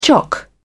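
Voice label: "chock", British English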